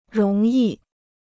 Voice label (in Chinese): "容易", Chinese